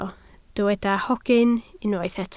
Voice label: "hogyn", Welsh

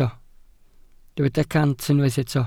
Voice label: "cant", Welsh

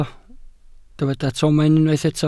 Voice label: "tomen", Welsh

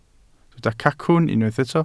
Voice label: "cacwn", Welsh

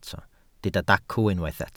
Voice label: "dacw", Welsh

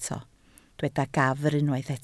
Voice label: "gafr", Welsh

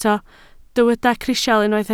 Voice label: "crisial", Welsh

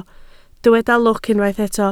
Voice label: "lwc", Welsh

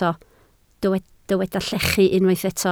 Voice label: "llechu", Welsh

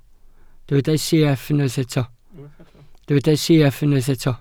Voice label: "sieff", Welsh

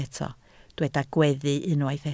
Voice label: "gweddu", Welsh

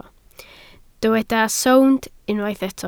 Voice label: "sownd", Welsh

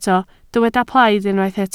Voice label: "plaid", Welsh

